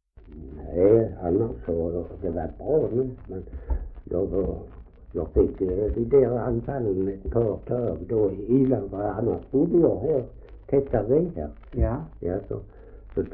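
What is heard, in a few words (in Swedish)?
Ausås Skåne, dialekter svenska sagor; Folkminnen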